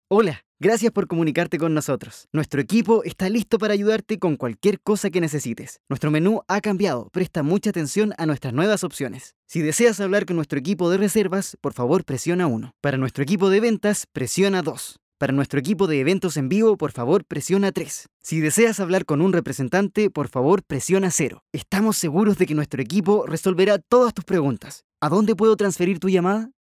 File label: spanish latin american ivr